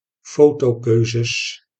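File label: "fotokeuzes", Dutch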